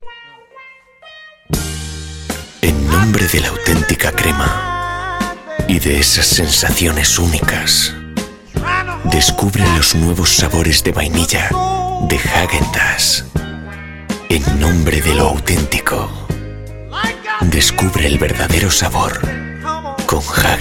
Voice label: Warm Calm bass